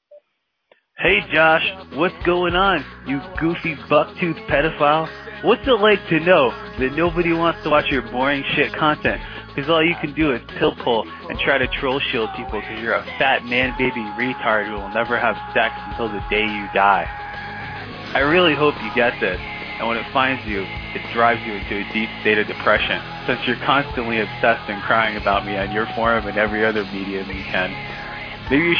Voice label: voicemail unfiltered